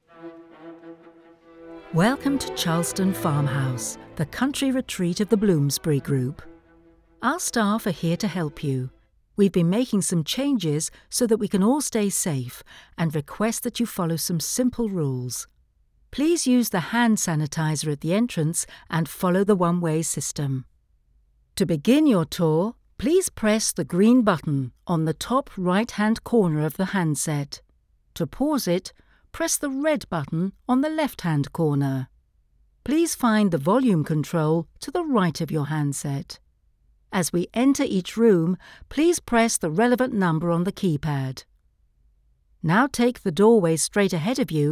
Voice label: Audioguide Reel

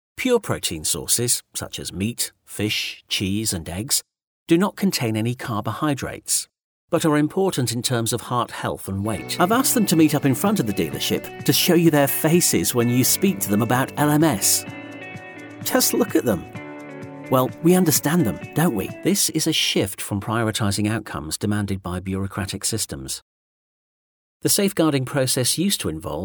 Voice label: E Learning

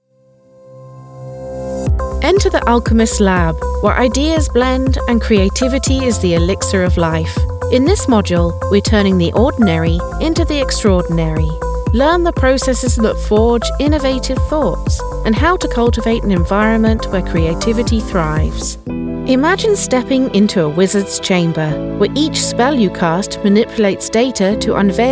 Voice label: Narration

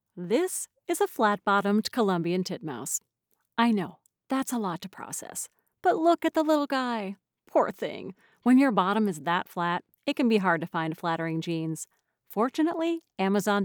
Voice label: Room Tone Script

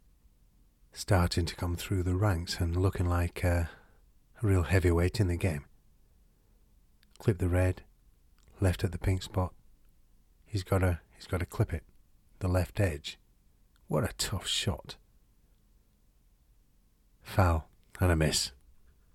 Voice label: snooker commentary

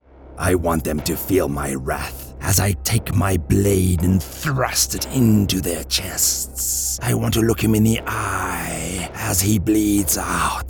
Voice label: Character Voices Compilation

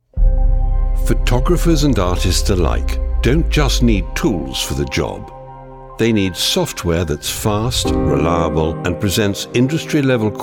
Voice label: Web Exp Corporate Presentation

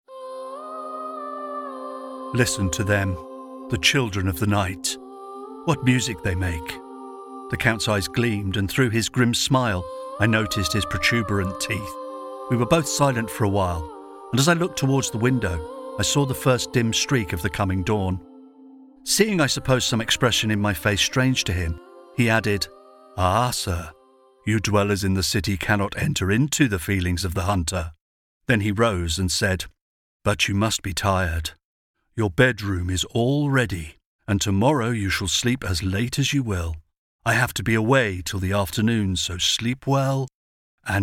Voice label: audiobook

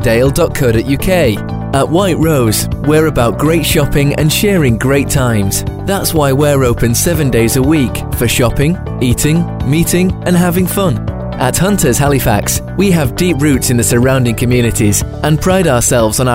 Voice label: On Hold Voice Over

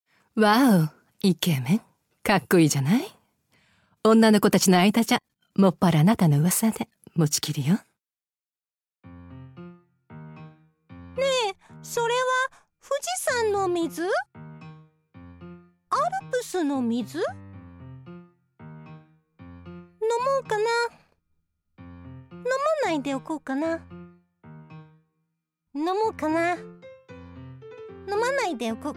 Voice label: Character Voices

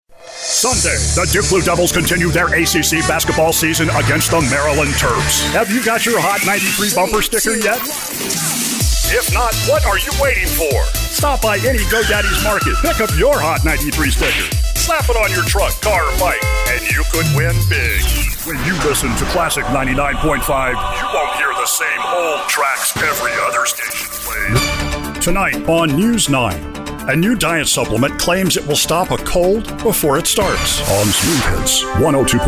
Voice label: Imaging

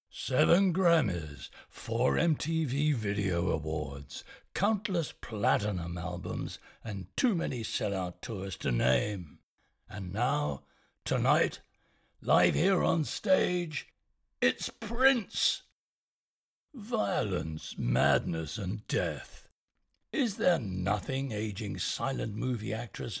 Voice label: Trailer vo English 4 reads

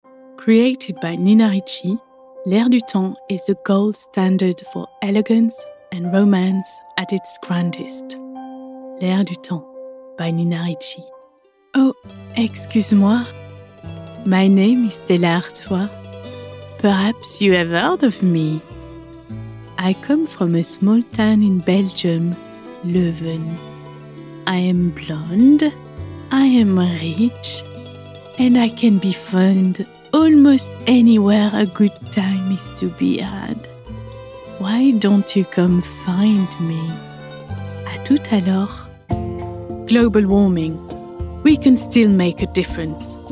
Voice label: commercial English unslated

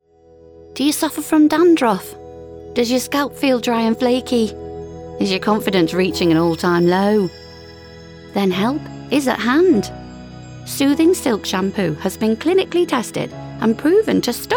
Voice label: Corporate

